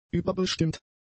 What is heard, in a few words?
"überbestimmt", German; adjectives